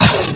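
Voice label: "hola", Spanish; caescript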